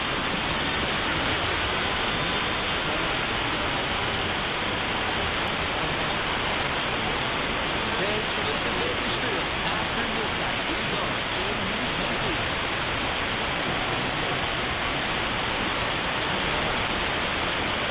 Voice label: KUZZ call, MW